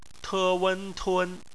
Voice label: "tun", Chinese; phonetics

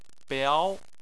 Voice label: "biao2", chinese

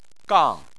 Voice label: "gang4", Chinese

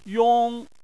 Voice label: "yong1", Chinese

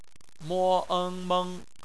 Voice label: "meng", Chinese; phonetics

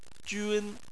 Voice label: "jun1", Chinese

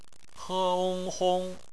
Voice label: "hong", Chinese; phonetics; bpmf

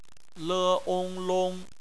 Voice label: "long", Chinese; phonetics

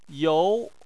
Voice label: "you2", Chinese

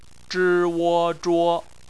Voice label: "zhuo", chinese

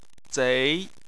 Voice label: "zei2", Chinese

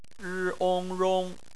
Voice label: "rong", Chinese phonetics

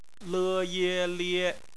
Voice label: "lie", Chinese; phonetics; bpmf

